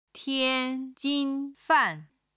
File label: "tian1 jin1 fan4", Chinese